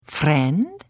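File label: Hello, Khajiit female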